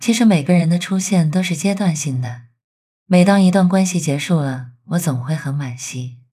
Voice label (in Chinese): 女正常